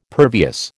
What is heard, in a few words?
"pervious", American